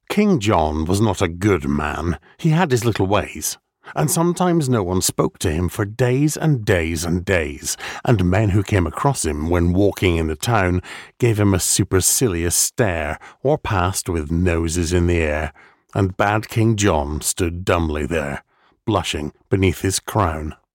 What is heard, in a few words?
scottish male natural